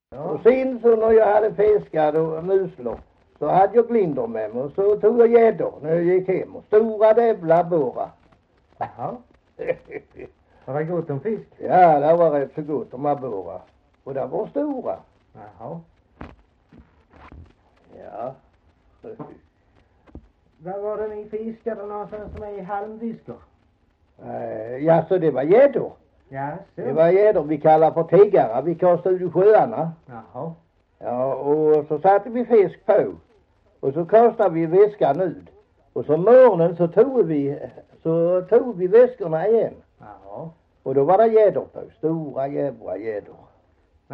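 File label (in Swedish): Hasslöv Halland, svenska sagor dialekter; Folkminnen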